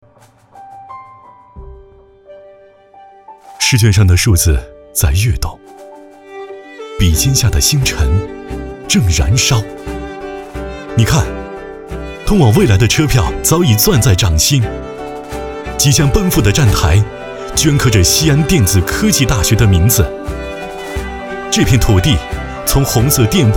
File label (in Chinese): 男, 宣传 学校; 学校希望奔赴